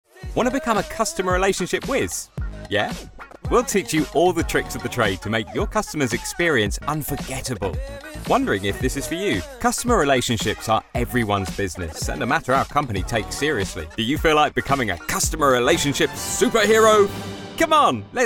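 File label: English UK Elearning; GoLocalise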